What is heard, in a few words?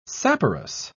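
"saporous", British English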